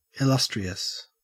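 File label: "illustrious", Australian English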